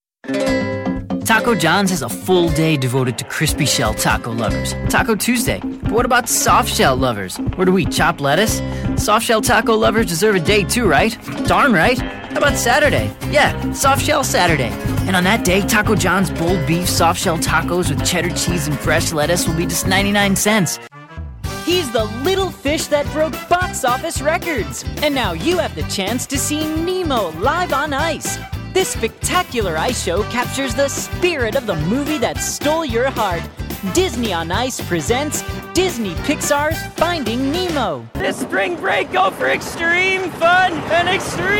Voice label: Commercial VO